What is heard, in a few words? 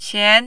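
"qian", Mandarin, second tone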